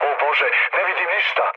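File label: Serbian searchlite mood low, speech; War Thunder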